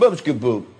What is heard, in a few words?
booskyboo, Voice gibbrish